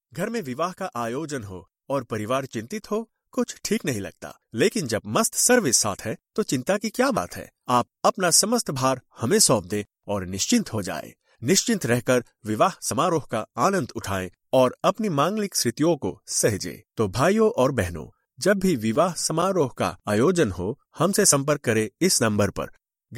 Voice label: hindi commercial; voyesnow

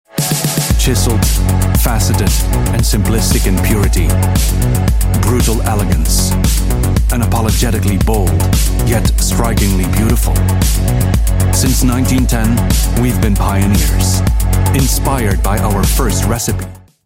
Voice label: english epic; belvedere

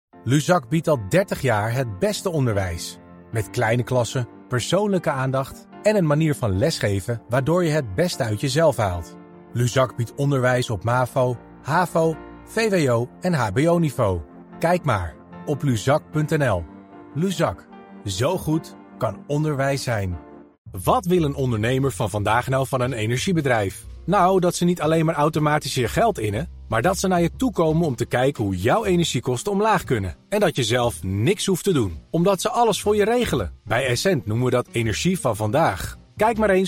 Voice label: commercials

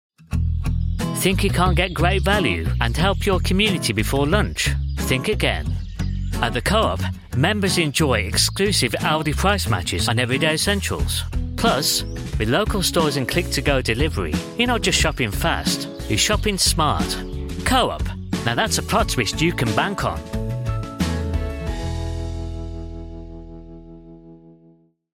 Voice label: ad coop

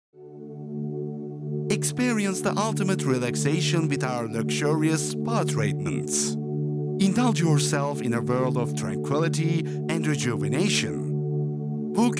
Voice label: ads

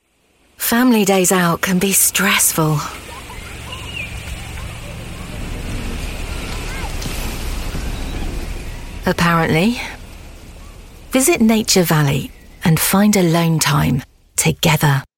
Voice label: commercials calm measured

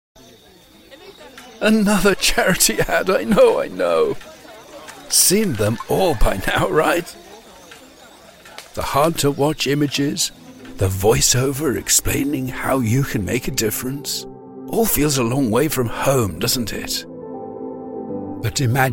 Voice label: commercial

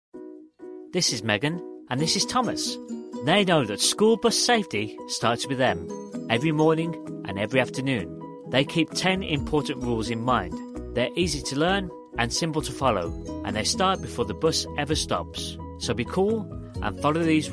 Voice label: explainer video

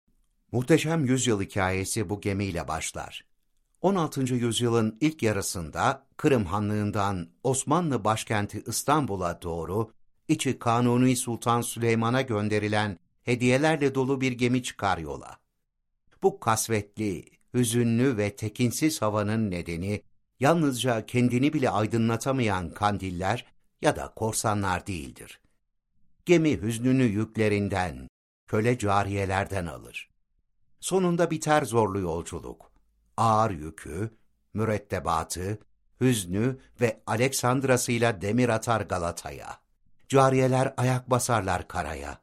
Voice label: documentary

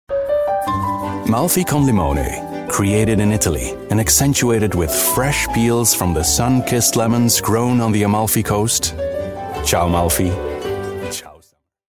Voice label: english commercial; malfy gin

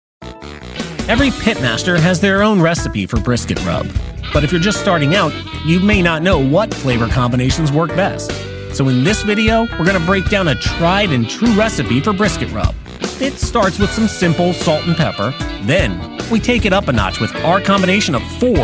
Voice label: narration

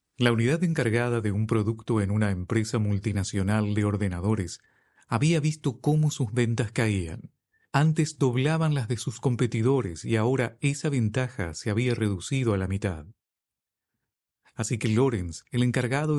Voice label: documentary es neutro

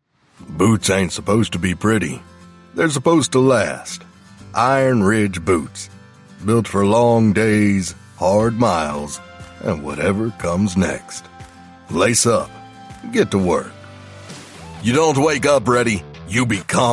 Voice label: commercial spot; 3spot

